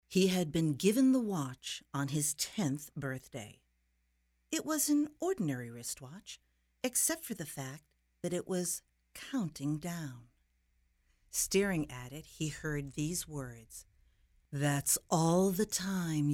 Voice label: Suspense Book Read